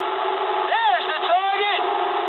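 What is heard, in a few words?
English near waypoint mood medium; War Thunder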